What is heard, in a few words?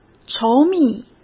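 "稠密", Mandarin Chinese; chinese flashcards; hsk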